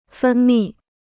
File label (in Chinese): "分泌", Chinese